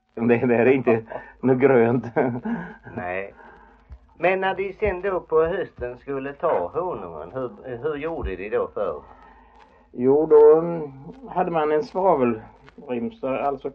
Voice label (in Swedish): Idala, dialekter; HALL